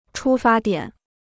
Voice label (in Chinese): "出发点", Chinese